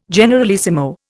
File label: "generalissimo", Indian